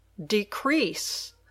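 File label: "decrease", English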